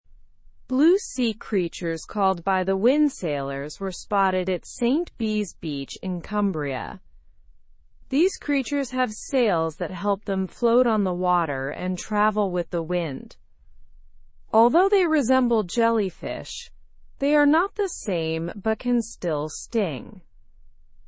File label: english news lower intermediate slow; by the wind sailors visit st bees beach